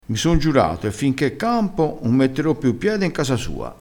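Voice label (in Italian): "essisi giurato", vocabolario dialettale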